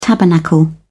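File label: "tabernacle", British English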